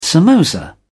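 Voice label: "samosa", British English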